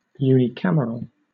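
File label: "unicameral", British English; pronunciations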